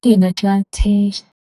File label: techno vox female voice; vocals